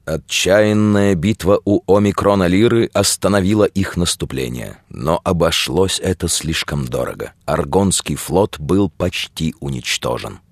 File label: narr